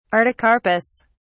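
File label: "artocarpus", English, British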